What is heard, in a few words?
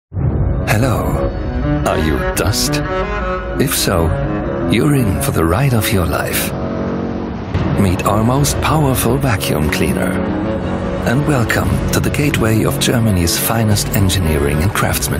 EN TVC; Canada; Miele